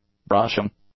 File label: "brushing", English; nouns